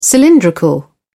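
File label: "cylindrical", British English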